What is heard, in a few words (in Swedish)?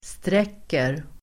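"sträcker", Swedish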